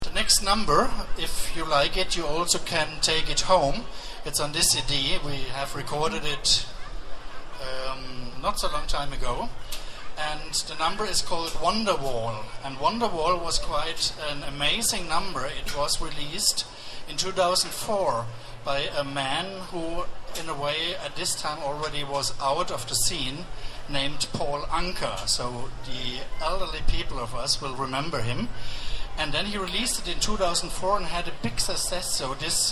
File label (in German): Ansage